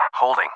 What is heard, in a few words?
hold, English